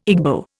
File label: "Igbo", Indian